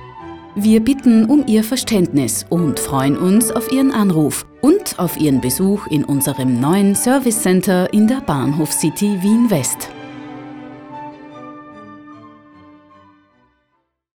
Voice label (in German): Telefon